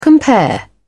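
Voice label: "compare", British English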